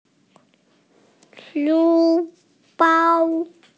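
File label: "shu1bao1", Mandarin Chinese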